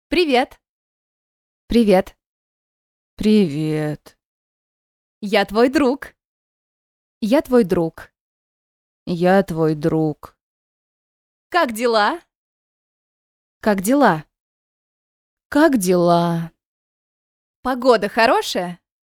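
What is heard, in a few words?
vox Russian female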